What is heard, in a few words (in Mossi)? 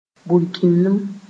"burkĩndlim", moore